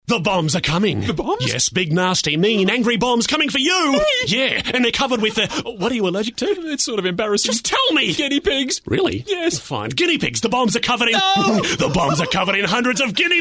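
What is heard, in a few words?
English Australian Characters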